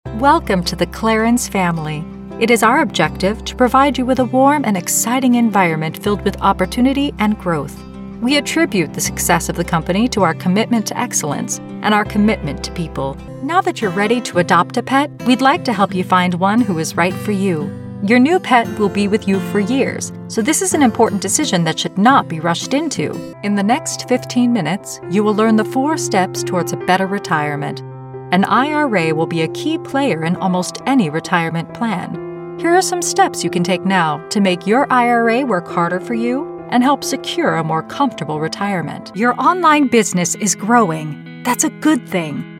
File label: 30s Female Narration